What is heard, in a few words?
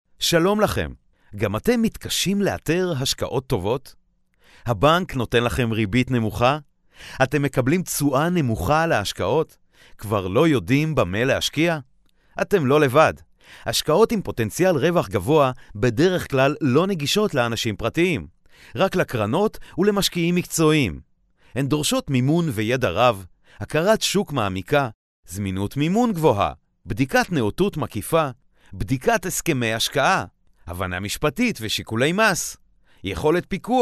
hebrew voice over audio bite